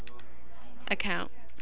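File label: "account", American, female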